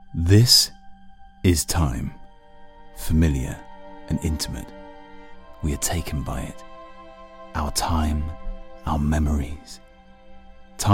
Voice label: Narrative

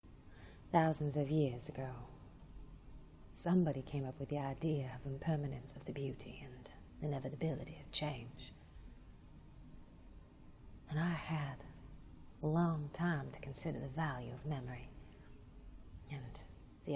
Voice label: Narrative

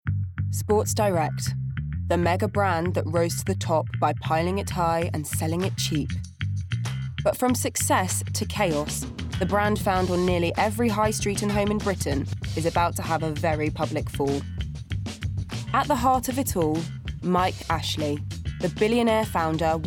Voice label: Documentary

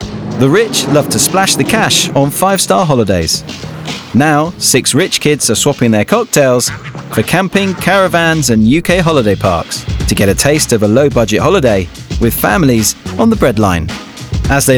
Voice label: Documentary

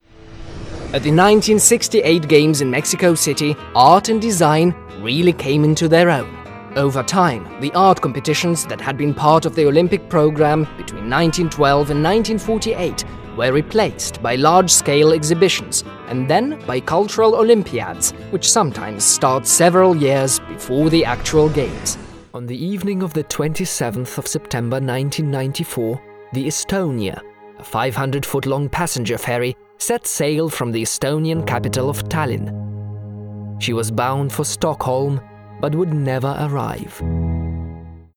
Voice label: Narration English

